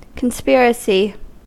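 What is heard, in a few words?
"conspiracy", English, US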